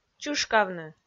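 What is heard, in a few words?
"чышкавны", Komi, female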